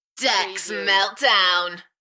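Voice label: UK female, Enthusiastic, DJ vocals; Decks meltdown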